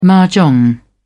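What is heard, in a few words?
"mah jong", British English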